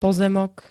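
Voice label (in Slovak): "pozemok", slovenské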